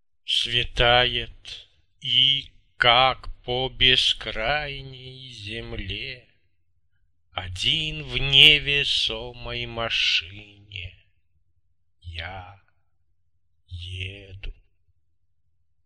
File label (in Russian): звучащие стихи; "Язык печали сложен и богат..."